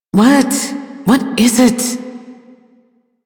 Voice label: Sweeper en, ambient dialog; Warframe; Duviri

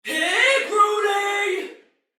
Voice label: Sight Lost Shout, Female, Soldier; Dialog; Barks; Battle; Sinecure

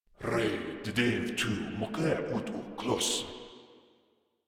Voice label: Patrol Grineer Male, Barks, Battle

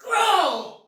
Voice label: Attack Normal Soldier Female, Battle Barks

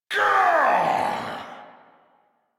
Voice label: angry, en; Warframe; Tyl Regor Boss; Efforts

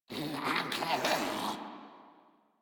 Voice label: en, Dialog Barks Battle; Patrol; Warframe; Grineer Wolf Gangster

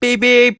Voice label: Game Start Arcade Machine; Warframe; 1999; Ollies Crash Course

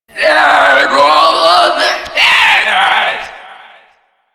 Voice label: Female Taunt; Grineer; Taunts

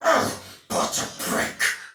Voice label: Damage Low Chance, Soldier Male; Dialog; Barks; Battle; Sinecure

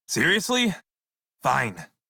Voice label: Crew Accept Male en; Dialog; Warframe; Railjack Crew; Red Veil

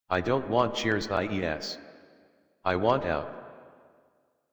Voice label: Happy Adult, en, Dialog; Warframe; Duviri Paradox Quest

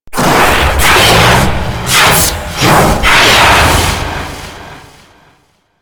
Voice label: Golem Boss Speak Combat Taunt; Warframe; Taunts

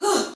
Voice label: Attack Soldier Female, Battle Barks; Sinecure

